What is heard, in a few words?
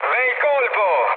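Italian bomb success mood med, speech; War Thunder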